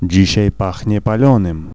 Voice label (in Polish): Frying Tonite, vocals pl; fan made